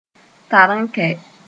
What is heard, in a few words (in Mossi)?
"tar-n-kɛ", moore